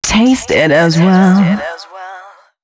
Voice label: female spoken hooks, dance ultra voice

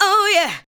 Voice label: ADLIBS 90; Ueberschall; Jam Box

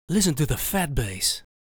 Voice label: "Listen To The Fat Bass", vocal phrases; Ultimate Vocals

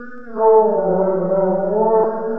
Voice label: Childish Voice; Vocal; Myths of Technology

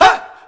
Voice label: "Hoy", Vocals Male; World Pop

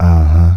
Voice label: UHHUH, Male Vox; Hip Hop Nation

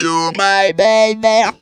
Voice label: "my baby", Funky Vocals; USB Soundscan vol.18; AKAI

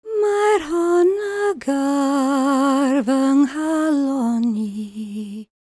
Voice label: WELSH F, World Voices

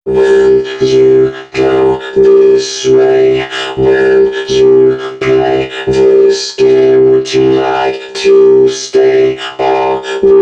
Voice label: male d# 130; FX; Mutekki Media; Dance Ultra Voice VOL-1

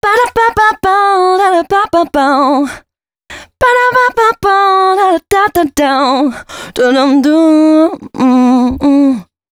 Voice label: female c#133, adlips

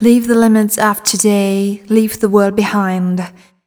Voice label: fem spo, Vocals

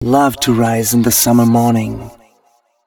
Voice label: male, spoken hooks

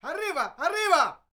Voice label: MEXICO VOICE, MEXICAN; ILIO; Vocal Planet VOL-5

